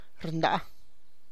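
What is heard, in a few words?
split, Tlacochahuaya Zapotec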